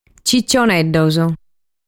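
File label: "Cicioneddos", Sardinian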